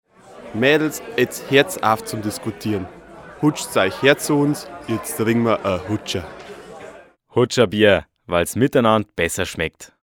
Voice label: Werbung Reminder

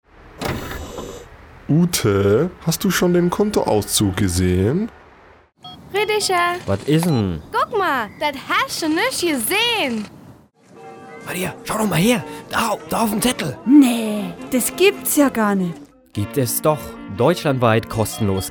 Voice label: Werbung Sparkasse

